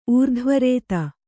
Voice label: "ಊರ್ಧ್ವರೇತ", Kannada; ūrdhvarēta; noun